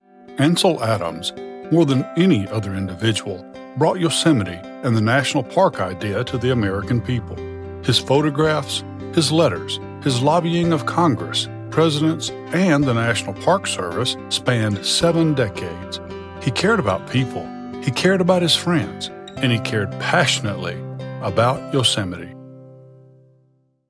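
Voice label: US English, Documentary; GoLocalise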